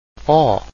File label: "o" open, Portuguese; like ‘o’ in ‘hot’